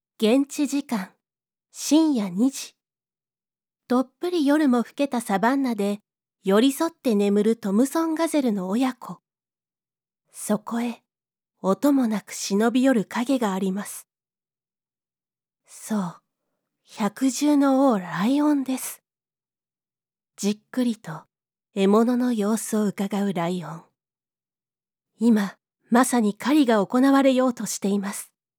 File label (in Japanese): TV 子供向け番組; サバンナ